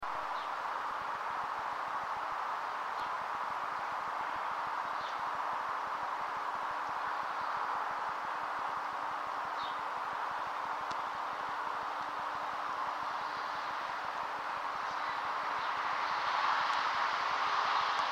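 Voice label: normal to low Voice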